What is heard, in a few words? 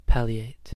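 "palliate", American English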